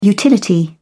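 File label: "utility", British English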